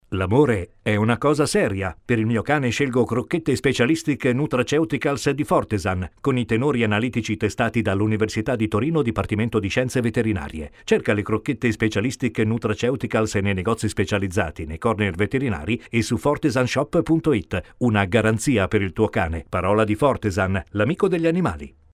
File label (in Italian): spot; FORTESAN